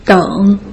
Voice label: "deng", Putonghua

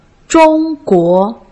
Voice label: "zhong guo", Putonghua

